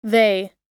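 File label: "they", English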